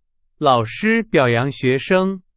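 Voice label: Chinese; hsk4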